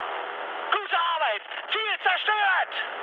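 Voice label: German bomb success mood high, speech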